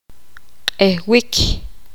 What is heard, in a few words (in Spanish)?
poqomam caer